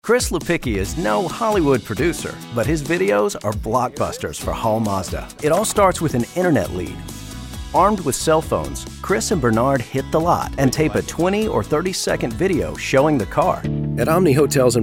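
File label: Narration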